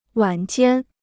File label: "晚间", Chinese